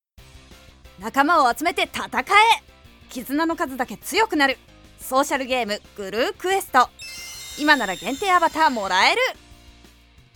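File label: Character boy TV Game Ad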